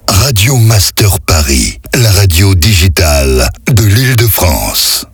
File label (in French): slow Accap, Voix off; Paris; 1ère radio IDF